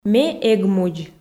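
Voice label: Komi, female; ме эг мудз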